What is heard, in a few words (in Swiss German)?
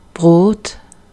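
"Brot", Swiss German, oberelsässisch (Milhüsa)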